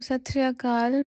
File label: punjabi; POP UP Zagreb